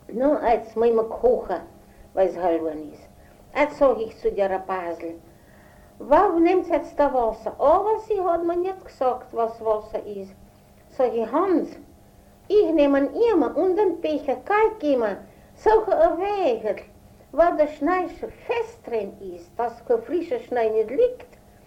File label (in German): eine wasserquelle finden bayerisch